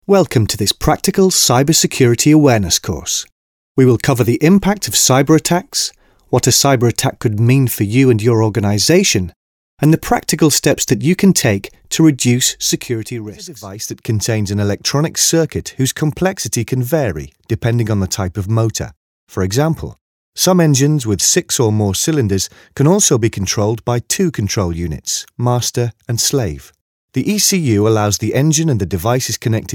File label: male english neutral northern accent e learning compilation